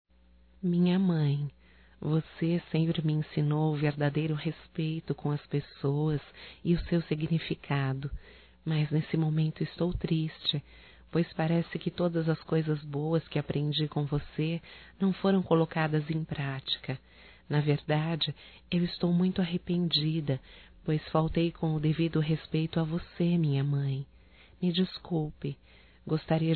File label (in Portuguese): Desculpas a Mãe Feminino, Telemensagens, Só Voz; Telemensagens 2017